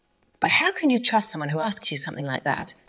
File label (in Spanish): Voice Acting es